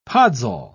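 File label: "podsol", British English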